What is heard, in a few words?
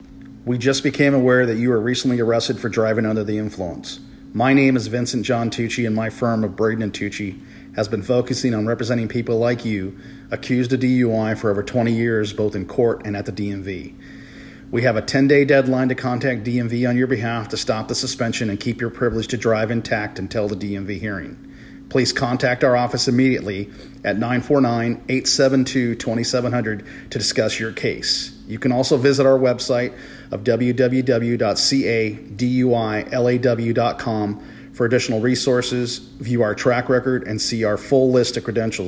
voicemail